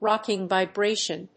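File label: "rocking vibration", English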